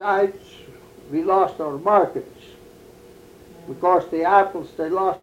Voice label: Oral history with 89 year old white male Mesa County Colorado, american english dialect recordings